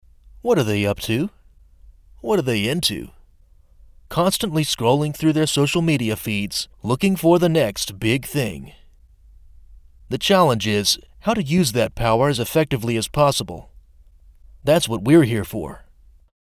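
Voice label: US English Male sample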